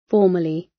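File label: "formerly", British; Time, history; Thời gian, lịch sử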